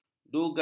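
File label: "duga", Malay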